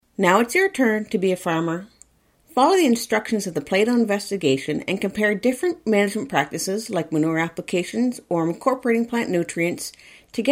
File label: narration